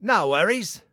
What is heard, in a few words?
Team Anytime, Male; Male 02